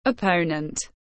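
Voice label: "opponent", English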